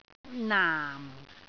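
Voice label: "naam4", Cantonese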